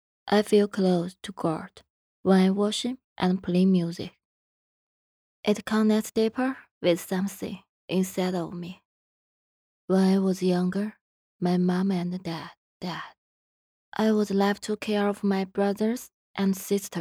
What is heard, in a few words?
English commercial